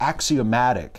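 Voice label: "axiomatic", American English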